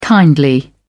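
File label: "kindly", British English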